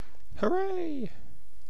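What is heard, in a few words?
"hooray", British English; pronunciations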